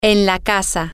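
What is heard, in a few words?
in the house, spanish, female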